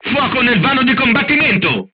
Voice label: voice message fire in tank, crew tanks italian